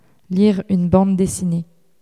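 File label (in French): "lire une bande dessinee" French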